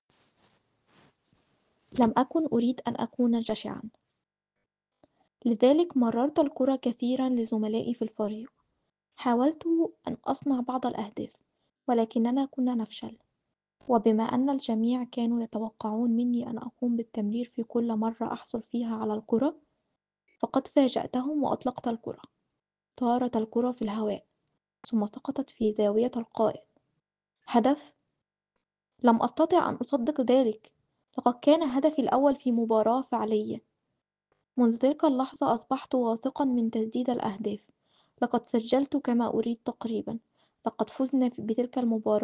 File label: Arabic